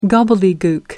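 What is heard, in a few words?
"gobbledygook", British English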